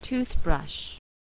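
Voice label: "toothbrush", English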